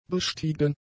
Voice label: "bestiegen", German; adjectives